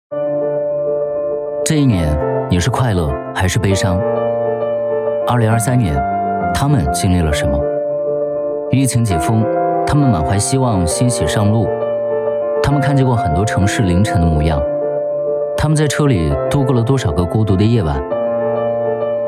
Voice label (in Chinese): 男 暖心广告 年轻自然 温柔磁性; 货拉拉